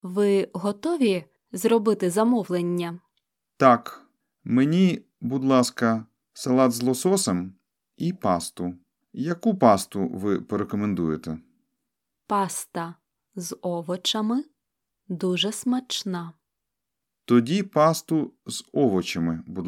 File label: Dialogue Slow